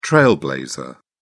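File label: "trailblazer", British English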